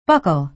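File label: "buckle", American English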